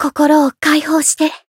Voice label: encount, jp; saki